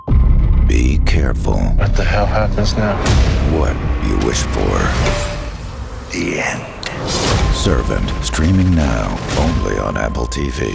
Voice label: Promo